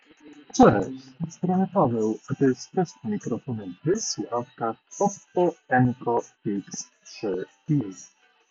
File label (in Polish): oppo enco x3i glosno